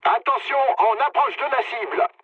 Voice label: near waypoint mood low, speech fr; War Thunder

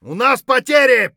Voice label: friendly down; Escape from Tarkov

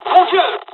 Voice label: dialog, dialogs french; War Thunder

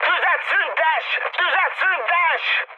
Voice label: player friendly fire, mood high, speech hun

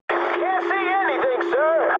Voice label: eng chat, speech eng

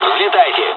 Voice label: fly, dialogs russian; War Thunder

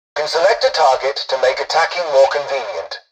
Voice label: tutorial dialogue, english; War Thunder

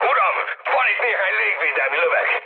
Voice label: damaged aa, mood high, speech hun; War Thunder

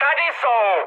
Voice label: enemy detected, speech cze, mood high; War Thunder

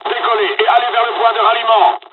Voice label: takeoff, dialogs french; War Thunder

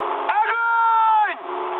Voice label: attack position, mood high, speech ru; War Thunder

